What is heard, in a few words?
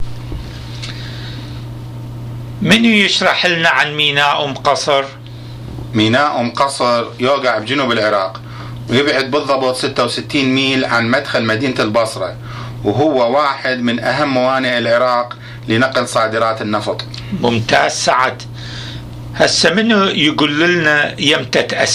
Dialogue about Umm Qasr port, Iraqi Dialect